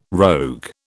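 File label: "rogue", British English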